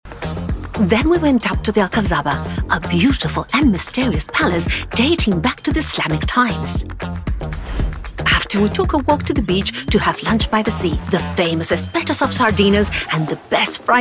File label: english british promos